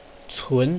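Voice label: "cun2", Mandarin Chinese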